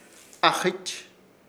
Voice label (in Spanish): "AJƗCH" pedo, Ishir Chamacoco